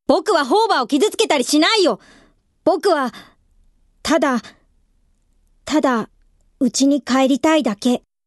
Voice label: boy, female voice

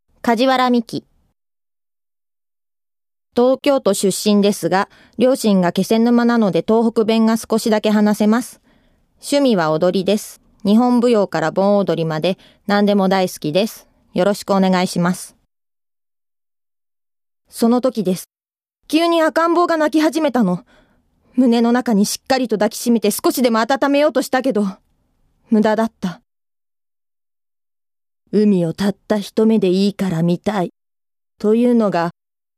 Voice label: female voice

